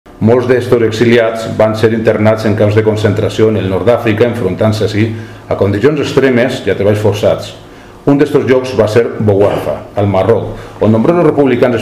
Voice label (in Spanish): DOCUMENTAL TREN